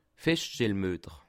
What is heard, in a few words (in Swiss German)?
"feschstellmueter", alsace